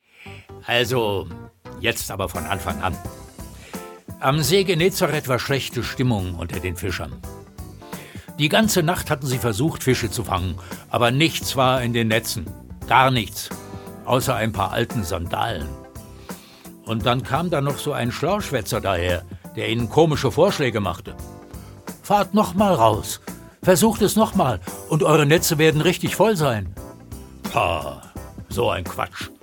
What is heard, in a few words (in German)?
Erzaehler